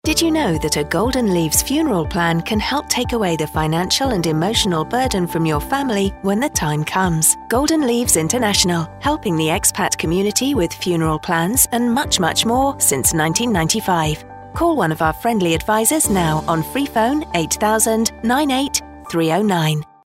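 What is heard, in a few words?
funeral female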